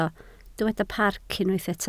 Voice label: "parc", Welsh